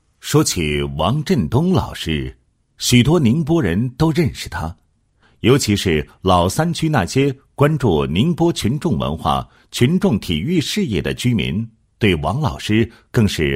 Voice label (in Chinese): 男 人物; 刀未老 为人师表 王老师事迹